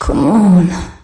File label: voice "come on" lower